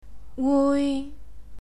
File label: "wui", Cantonese